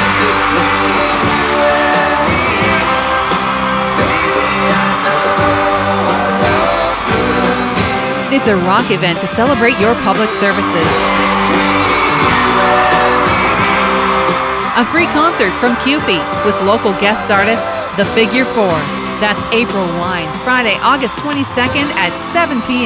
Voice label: Thorold commercials